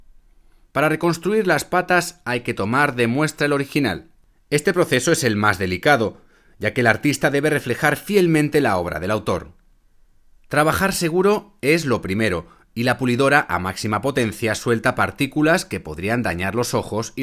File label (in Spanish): LOCUTOR